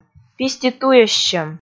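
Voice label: "пиституйӧссьӧм", Komi, female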